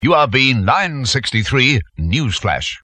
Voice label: newsflash no bed

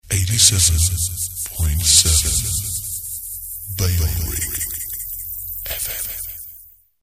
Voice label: 87.7 (low male, reverse echo), jingles; bailrigg fm; bfm jingles ads RSL 1995

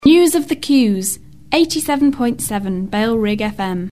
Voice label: news of queues 87.7 bfm, female no bed; jingles ads 1994; bailrigg fm; bfm jingles ads RSL 1994

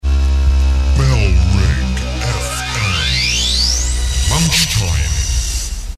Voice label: male, reverse bed; bfm lunchtime; bailrigg fm; bfm jingles ads RSL 1994

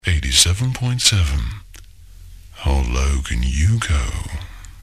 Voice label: how low can you go, male growl, no bed; jingles ads 1994; bailrigg fm; bfm jingles ads RSL 1994

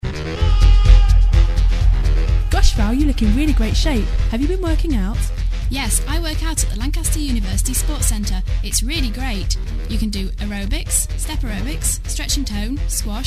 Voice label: ad, family swim, wobble; LU sports centre; bailrigg fm; bfm jingles ads RSL 1994

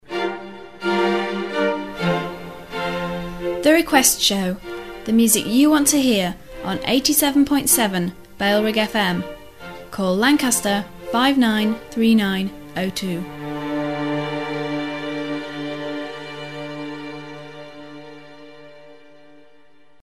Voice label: female, strings bed; bailrigg fm; bfm jingles ads RSL 1994

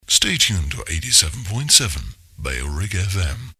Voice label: stay tuned 87.7 (low male, no bed), jingles; stay tuned 87.7 bailrigg fm

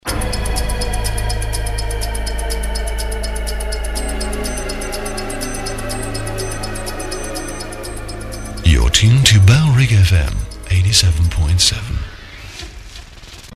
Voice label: low male, sweep bed; you're tuned to bfm 87.7; bailrigg fm

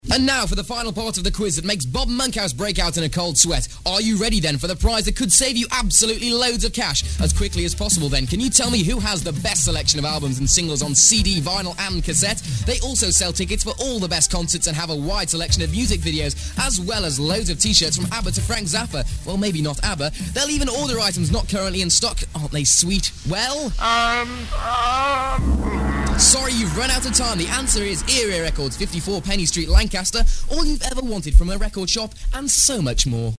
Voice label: ad; 'ere 'ere records; bailrigg fm; bfm jingles ads RSL 1994

